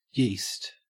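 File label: "yeast", Australian English; pronunciations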